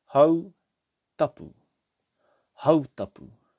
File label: "Hautapu", te reo